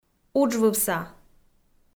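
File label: "уджвывса", Komi, female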